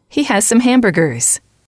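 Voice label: He has some hamburgers, female, English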